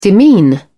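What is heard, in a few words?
"demean", British English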